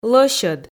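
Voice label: "лӧсьӧд", Komi, female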